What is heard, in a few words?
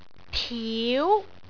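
"tiu2", Cantonese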